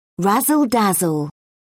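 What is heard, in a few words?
"razzle dazzle", British English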